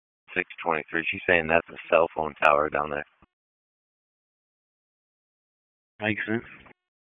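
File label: Dispatch 1, P25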